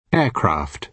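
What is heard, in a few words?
"aircraft", British English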